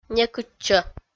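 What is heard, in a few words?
"некытчӧ", Komi-Zyrian, female; kpv audio dict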